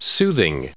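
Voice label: "soothing", US English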